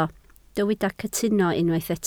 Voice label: "cytuno", Welsh